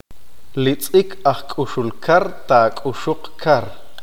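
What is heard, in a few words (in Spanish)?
"martín pescador comer pescado", qeqchi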